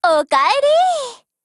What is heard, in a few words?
HELLO JP; GF Alarm; M1891